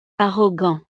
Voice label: "arrogant", francés; estados animo